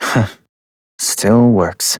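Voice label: Voice, English; New War; Operator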